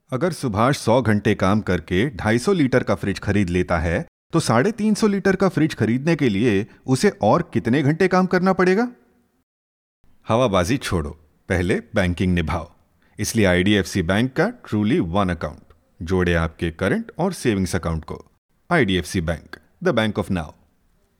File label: Hindi Character